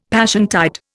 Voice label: "Passiontide", Indian; Dictionary

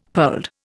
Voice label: "pearled", Indian; Dictionary

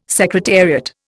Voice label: "secretariat", Indian

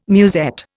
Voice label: "musette", Indian

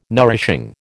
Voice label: "nourishing", American

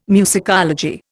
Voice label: "musicology", Indian

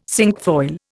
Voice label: "cinquefoil", Indian

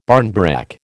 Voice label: "barnbrack", American

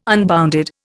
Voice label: "unbounded", Indian

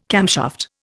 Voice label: "camshaft", Indian

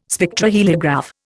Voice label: "Spectroheliograph", Indian

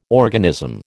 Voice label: "organism", American

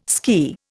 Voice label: "ski", Indian

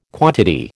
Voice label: "quantity", American

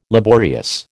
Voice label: "laborious", American

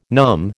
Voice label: "numb", American

